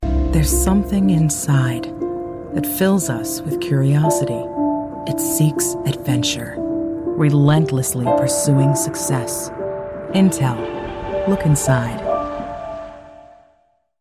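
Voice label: Narration soft soothing calm intimate emotion passionate relaxing caring, Female Voice Over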